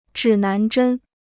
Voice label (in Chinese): "指南针", Chinese